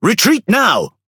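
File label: Speech Quick Commands Back, British English; World Of Warships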